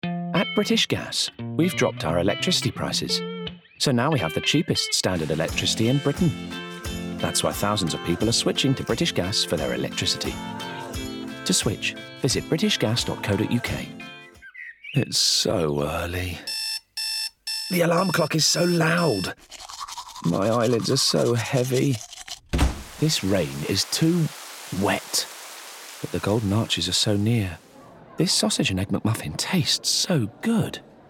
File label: Commercials Montage